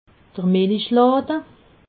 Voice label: "melichlade", alsace

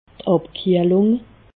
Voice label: "abkiehlung", alsace

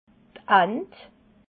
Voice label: "ant", Alsace

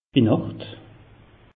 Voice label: "binacht", alsace; meteo

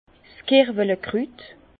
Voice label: "kerwele krut", Bas Rhin; vin; cerfeuil

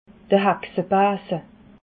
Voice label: "haxabase", alsace

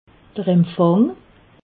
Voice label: "empfang", alsace

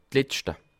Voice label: "letschte", Alsace

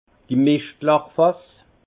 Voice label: "mischtlachfass", alsace; vin; fût à purin

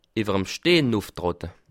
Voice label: "stehn", Alsace

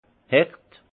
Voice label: "hart", alsace; vin

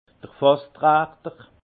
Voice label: "fasstrachter", alsace; entonnoir de tonneau; vin

